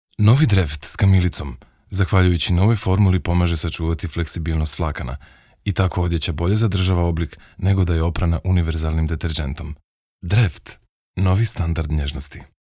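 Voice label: serbian male narration; voice demos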